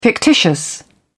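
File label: "fictitious", English, British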